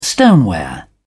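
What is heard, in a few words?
"stoneware", English, British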